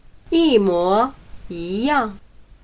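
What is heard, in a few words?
"一模一样", Chinese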